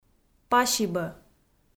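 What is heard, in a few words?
"пасибӧ", Komi, female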